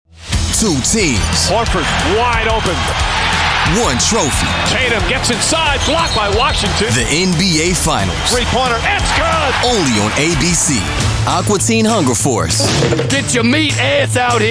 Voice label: promo men